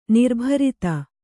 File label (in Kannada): "ನಿರ್ಭರತೆ", kannada